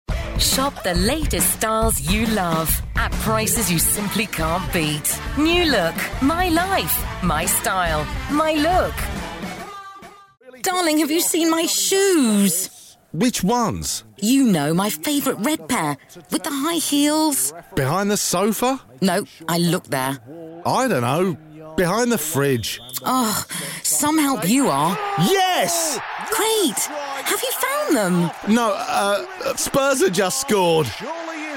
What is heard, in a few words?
english british commercial, friendly; friendly voice actors